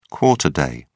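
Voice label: "quarter day", English, British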